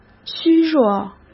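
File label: "虚弱", Mandarin Chinese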